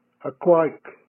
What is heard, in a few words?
"Aakwayk", Kumeyaay; Again